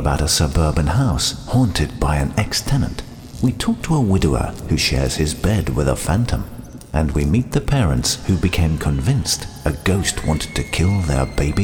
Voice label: Podcast

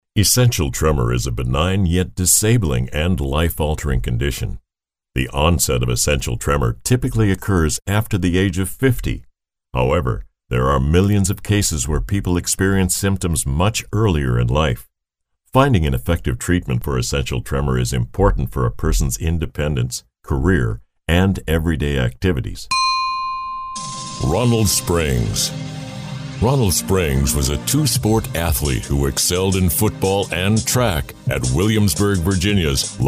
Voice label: narration

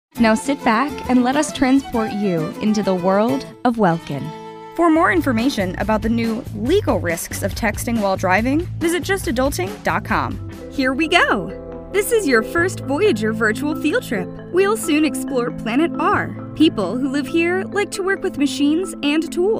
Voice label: Narration; female voice over; Internet Jock

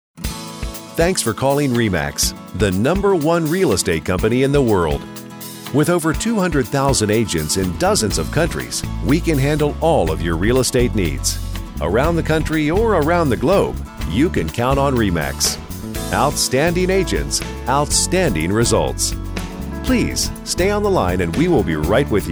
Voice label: on hold

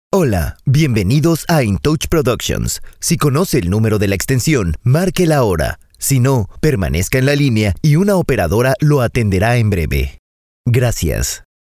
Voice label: Voicemail

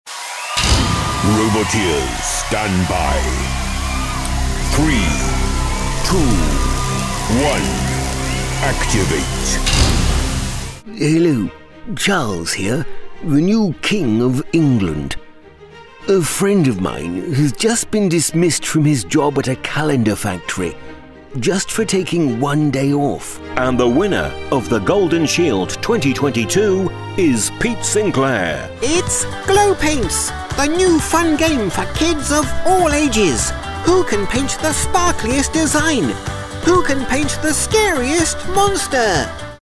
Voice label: Character Voice

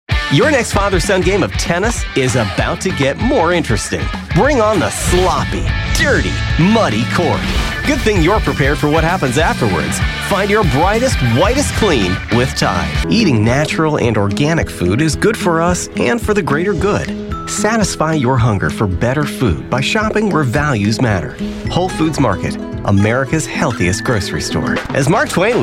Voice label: Commercial; male voice actor

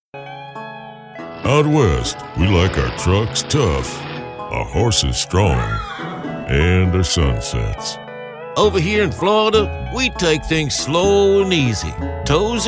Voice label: Character

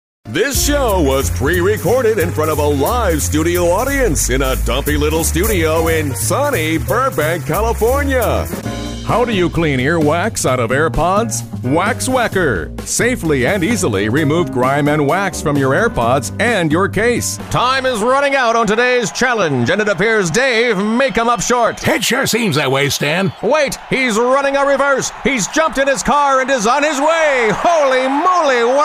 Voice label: Character Voices; character voiceover

